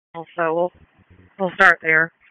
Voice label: FIRE OPS, p25; wake